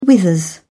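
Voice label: "withers", English, British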